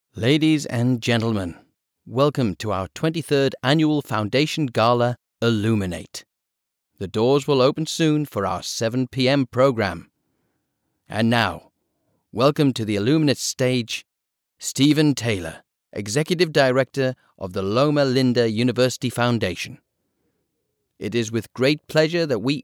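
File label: English, British, male; english british voice over talent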